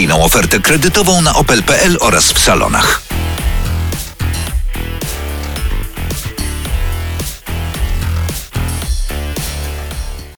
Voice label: reklama corsa

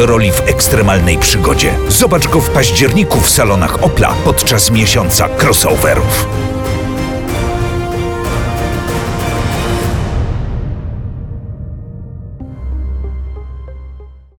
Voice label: reklama mokka

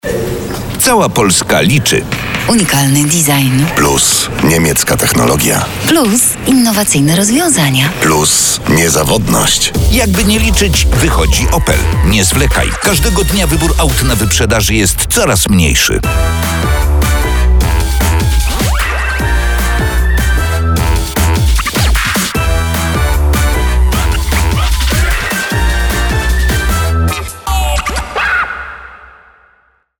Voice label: reklama wyprzedaz

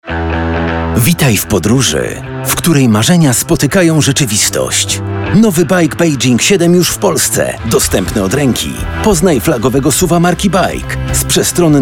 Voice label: reklama baic 7

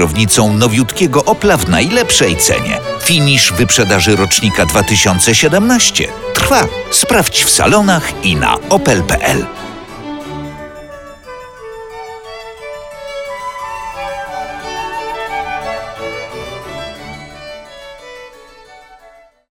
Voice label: reklama wyprzedaz wunderbar

